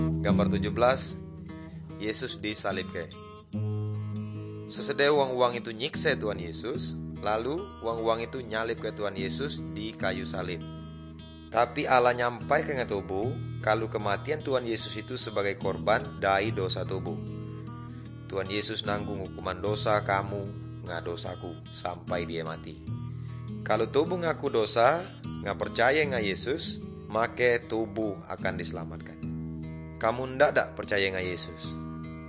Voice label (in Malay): good news melayu tanjung raja